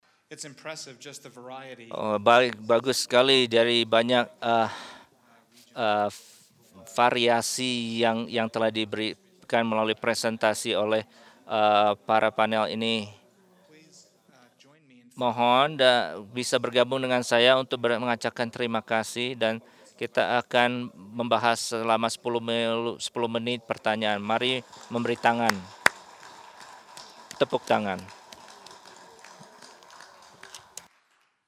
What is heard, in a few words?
Indonesian; iclrs symposium 2009